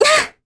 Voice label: Landing, kr; Talisha; kingsraid; voices heroes

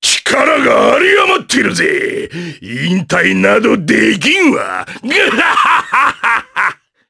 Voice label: vox, jp; Ricardo; kingsraid

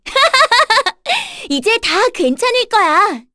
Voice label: vox, kr; Cecilia; kingsraid

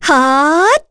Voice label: Casting, kr; Yuria; kingsraid; voices heroes

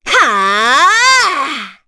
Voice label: Casting, kr; Cecilia; kingsraid

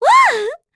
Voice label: Happy; Talisha; kings raid

